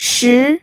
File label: "shi2", pinyin